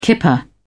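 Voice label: "kipper", British English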